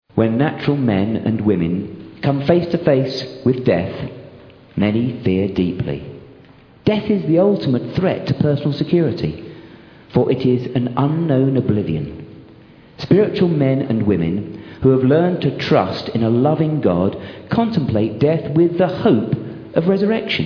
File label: Narration